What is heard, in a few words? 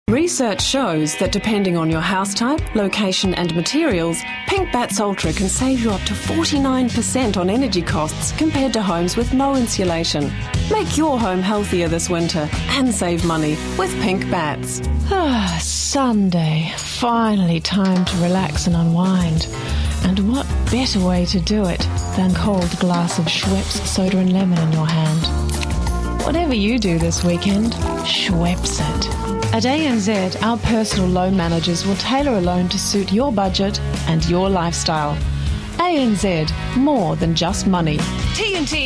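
english Australian female commercial; voice demos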